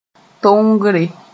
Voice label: "tongre", Mooré